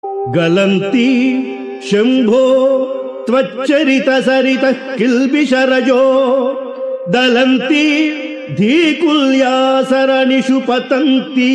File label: Sanskrit; Sri Adi Sankaracharyas Sivananda Lahari; Adi Shankaracharya; श्रीशिवानन्दलहरी